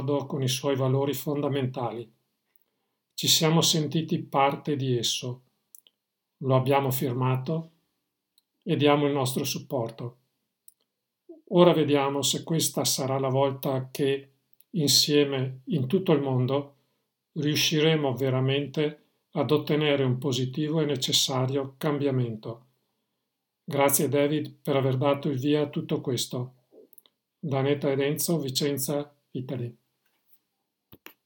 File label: manifesto italian